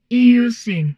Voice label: humanoid portrait female; Stellaris; humanoids